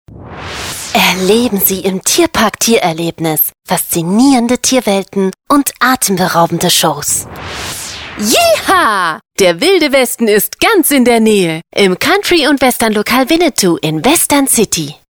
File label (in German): begeistert aktionistisch